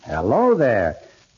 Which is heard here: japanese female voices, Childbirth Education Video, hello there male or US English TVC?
hello there male